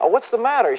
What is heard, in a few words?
what's the matter, male